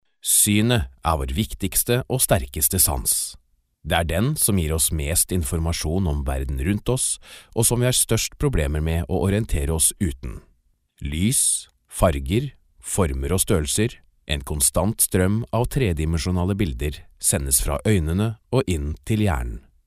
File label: Norwegian Male